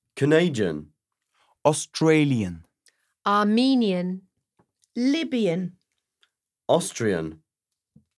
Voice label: Speak Accentuatio